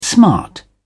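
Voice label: "smart", English, British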